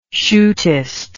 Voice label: "shootist", English